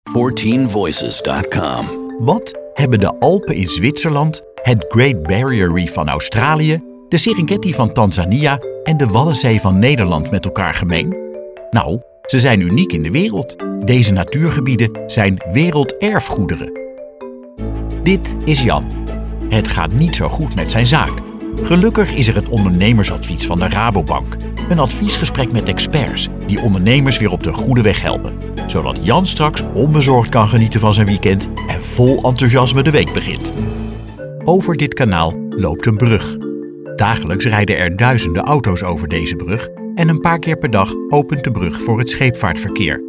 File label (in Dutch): Narratief Corporate; 14Voices